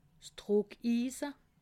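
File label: "druckise", Alsatian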